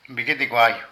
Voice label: Murciélago, Zapoteco de Petapa; Vocabulary

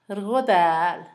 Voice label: mentada, Zapoteco, Asunción Ocotlan; Sustantivos; INALI

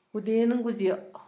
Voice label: trueno, Zapoteco, Asunción Ocotlan; Fenómenos; INALI; Haspelmath

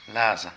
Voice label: Caspa, Zapoteco de Petapa; Vocabulary

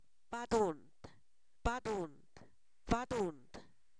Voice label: colibrí, Zapoteco, san miguel albarradas; animales; INALI

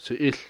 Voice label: "pez", Cucapá

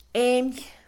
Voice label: arteria, vena, Mixe, Mujer; Cuerpo; INALI

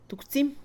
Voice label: una jícara, Mixe, Mujer; Cantidad; INALI

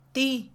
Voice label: qué, Mixe, Mujer; INALI

